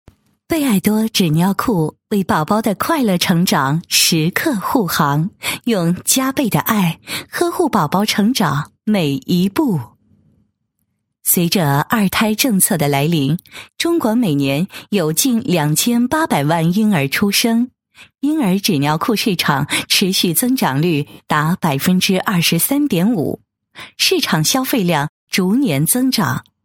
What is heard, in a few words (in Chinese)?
女 广告 亲切温柔感觉 纸尿裤, 国语女声配音; 全能配音